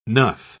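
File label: "nuff", British English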